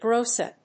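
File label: "grossa", English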